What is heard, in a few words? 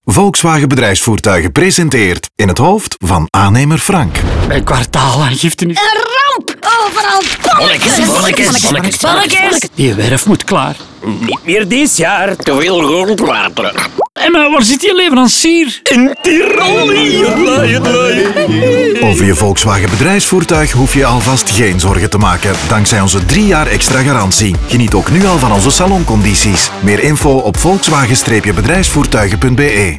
Radiospot; Minder kopzorgen voor vakmannen dankzij de saloncampagne van Volkswagen Bedrijfsvoertuigen